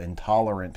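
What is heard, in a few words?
"intolerant", US English; pronunciations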